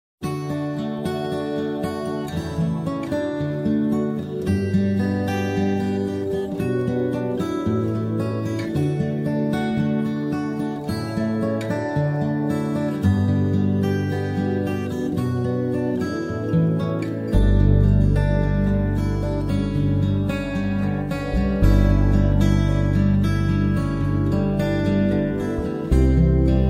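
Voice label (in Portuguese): aniversario genro feminino, telemensagem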